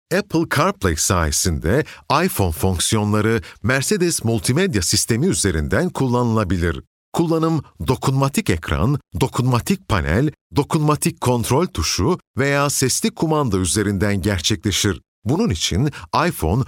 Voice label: turkish voice elearning